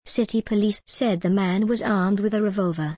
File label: en gb city police said the man was armed with a revolver